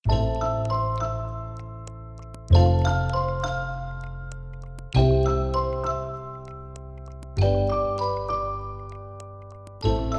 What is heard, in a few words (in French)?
fables de la fontaine en patois; chropatois